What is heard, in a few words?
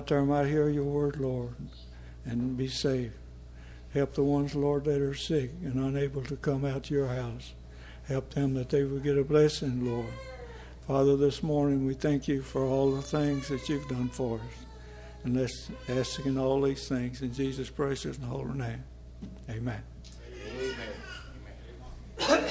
Broadcast Prayer